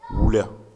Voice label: "wula", Bambara; nature